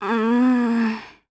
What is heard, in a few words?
Vox Think, jp; Glenwys; kingsraid